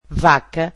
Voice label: "cow", portuguese